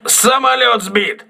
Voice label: voice message aircraft destroyed, crew ships russian